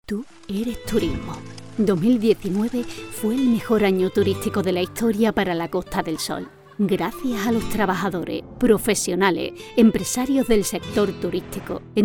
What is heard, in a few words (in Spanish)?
Demo Andaluz